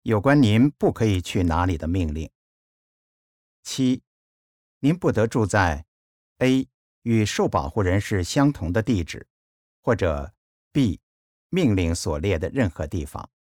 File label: mandarin; orders about where you cannot go